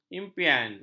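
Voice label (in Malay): "impian", Malay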